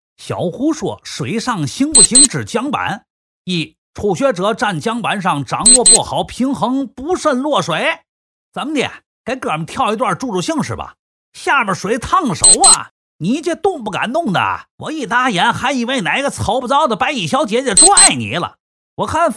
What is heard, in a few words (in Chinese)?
男 方言配音 天津话